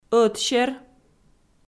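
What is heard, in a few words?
"ӧтсер", Komi, female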